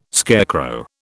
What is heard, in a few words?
"scarecrow", British